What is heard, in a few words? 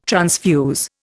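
"transfuse", Indian English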